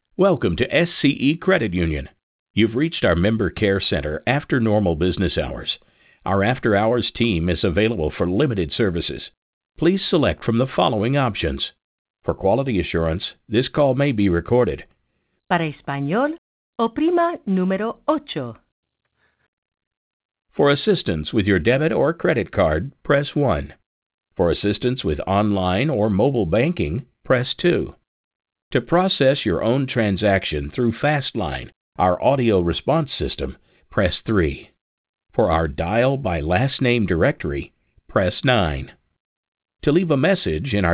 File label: Greeting English; SCE FCU